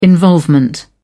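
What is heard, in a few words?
"involvement", British English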